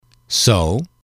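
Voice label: "so", English, male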